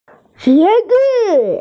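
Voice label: "qie2zi5", Mandarin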